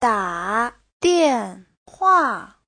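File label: "dǎ diànhuà", Mandarin Chinese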